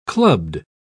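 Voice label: "clubbed", English, British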